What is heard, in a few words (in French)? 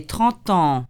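trente ans, French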